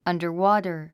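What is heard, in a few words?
"underwater", English; META: under（下） water（水）＝水の下で「水中の」というイメージ。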